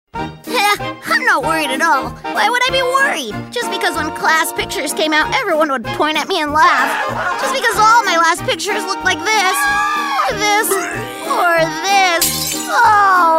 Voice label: Character